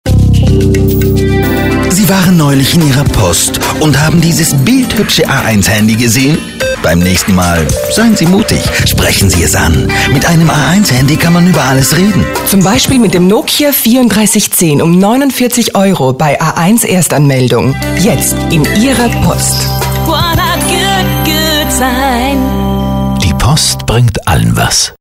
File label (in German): Post Werbespot; Bolzano